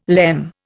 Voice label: "lemme", Indian